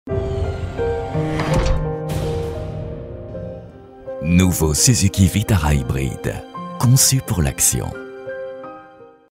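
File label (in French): chaleureux elegant, Comédien voix off, publicité; SUZUKI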